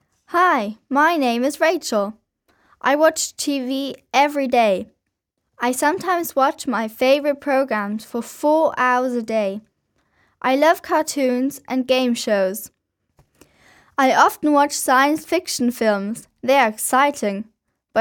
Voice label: Children are talking about TV